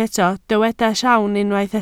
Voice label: "sawm", Welsh